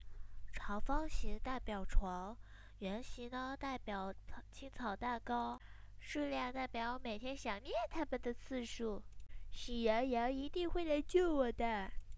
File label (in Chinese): 懒羊羊模仿